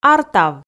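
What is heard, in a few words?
"артав", Komi, female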